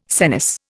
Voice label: "senesce", Indian